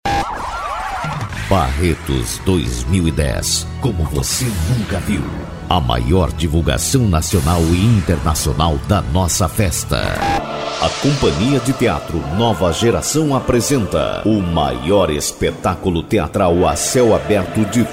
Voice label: locução Brasil, locutor; Banco De Vozes

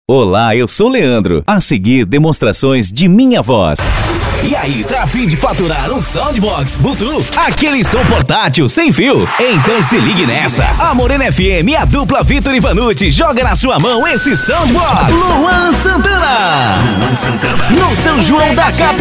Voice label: locutor

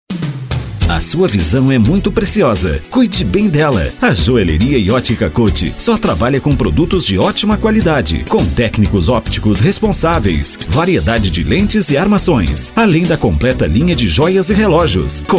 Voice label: locutor

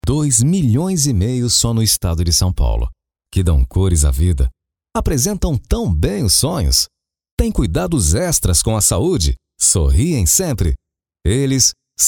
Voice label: voz locutor institucional

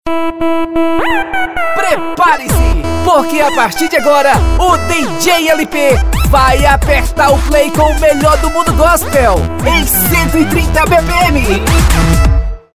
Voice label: voz locutor abertura dj

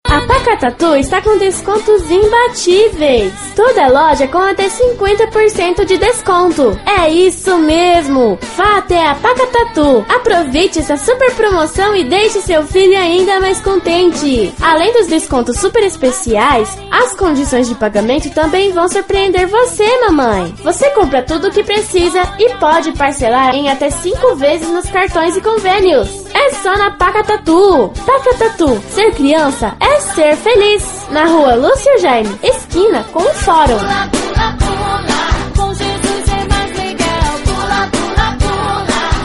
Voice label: voz infantil locutora

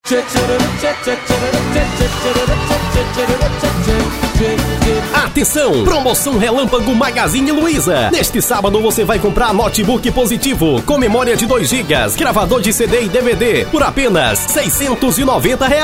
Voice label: spot

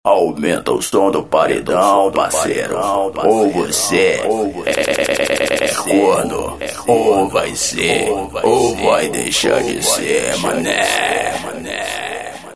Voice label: vinheta off aumenta o som do paredão parceiro ou vc é cornor com humor; estúdio de gravação CG&MRP

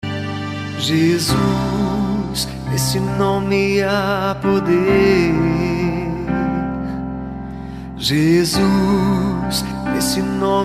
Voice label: voz locutor; festa bom jesus

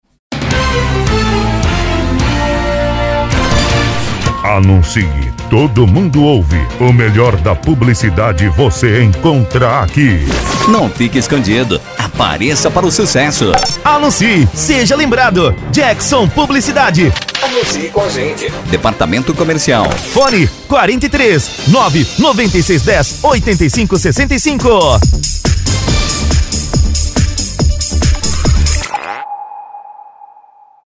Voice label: spot; jk7 estudio

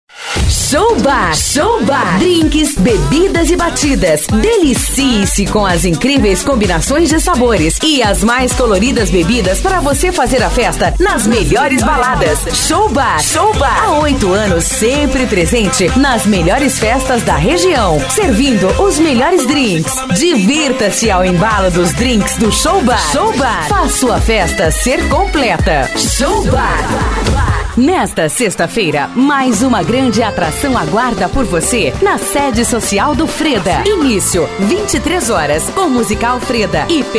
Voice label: locutora

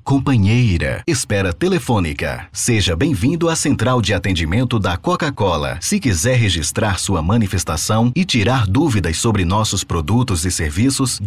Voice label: locutor locutora locução propagandas spots comercial anuncio; Chamada Offs Banco De Vozes Jingles

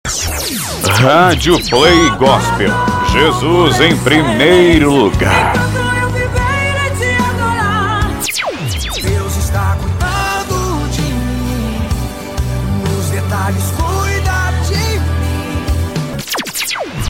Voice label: locutor vinheta radio gospel

